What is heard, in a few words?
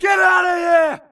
grunt grenade response; imc; Titanfall 2